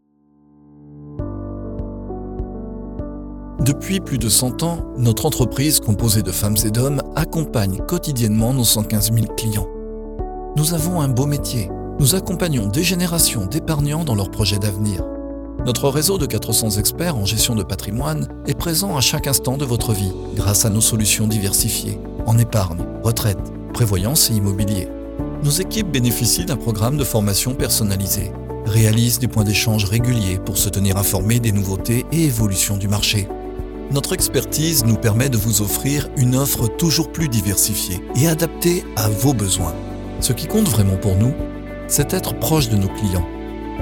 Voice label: Corporate; Démo Corporate